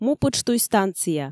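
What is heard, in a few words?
"мупытштуй станция", Komi, female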